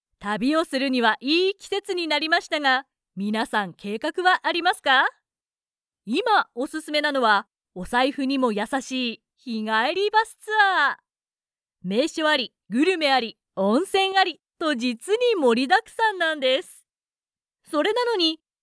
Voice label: japanese tv shows

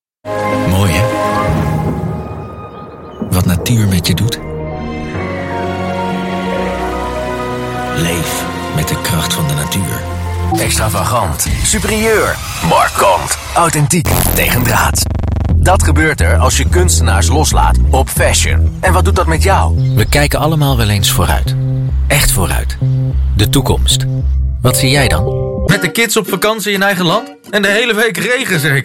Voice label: dutch commercial; The Netherlands

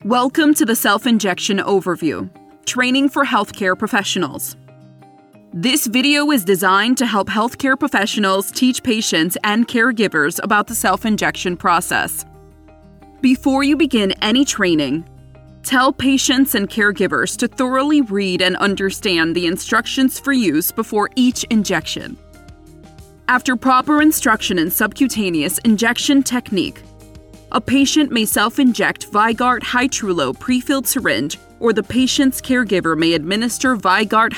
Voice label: english american medical narration

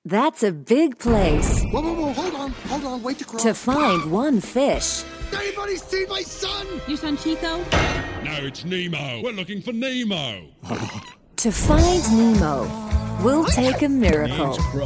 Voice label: english australian movie trailers; australische Sprecherin

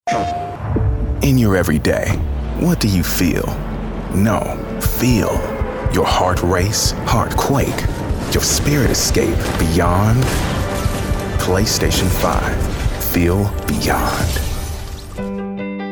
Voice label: english american commercial

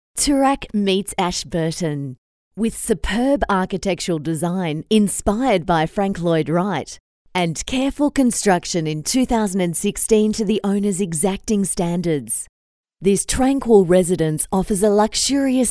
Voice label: english australian real estate; dubladora; Inglês (australiano)

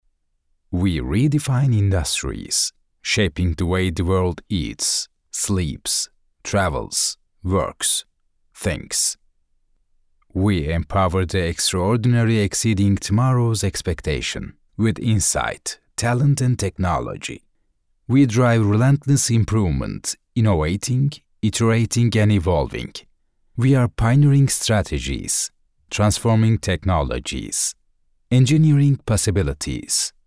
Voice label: english narration

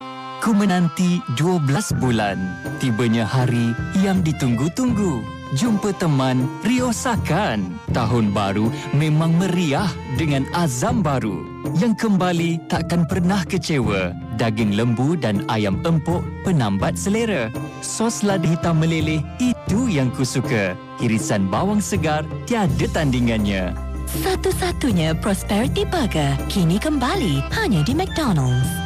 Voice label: malay promos

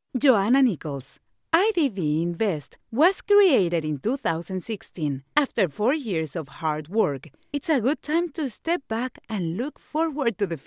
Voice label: english corporate videos

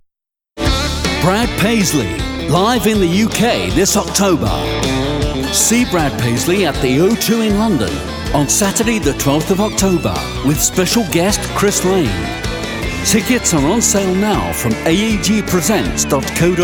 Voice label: english british tv shows

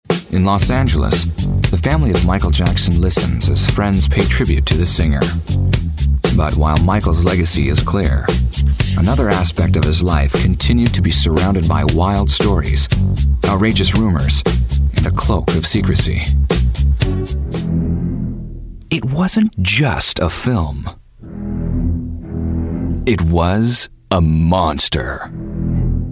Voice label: english american narration

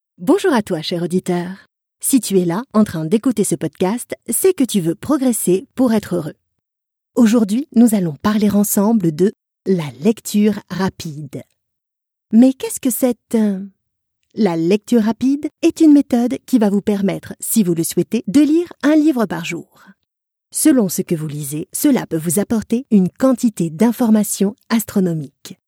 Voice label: french podcasts

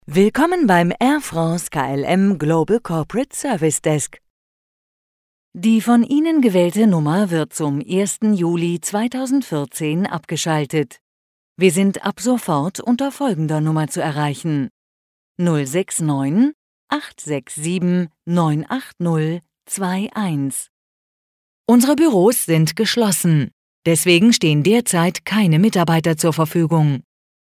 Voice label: german ivr

